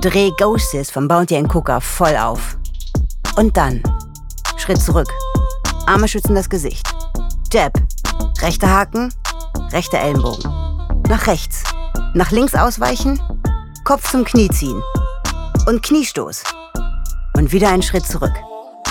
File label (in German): werbung dance challenge selfdefense